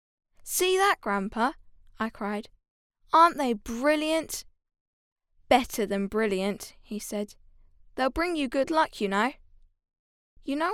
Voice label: girl british voice over character, english british